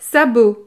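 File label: "sabot", French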